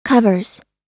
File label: "covers", us